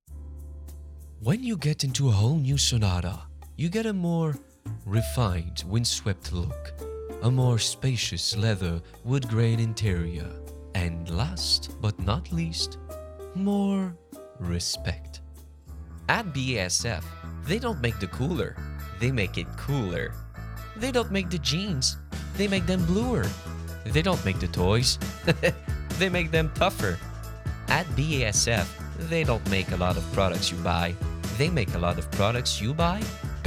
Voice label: Commercials EN